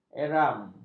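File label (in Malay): "eram", Malay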